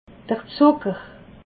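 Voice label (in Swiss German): "zucker", Alsatian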